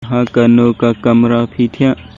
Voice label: Khasi